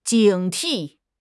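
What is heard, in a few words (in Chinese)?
"警惕", Mandarin Chinese; HSK6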